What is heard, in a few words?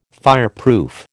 "fireproof", American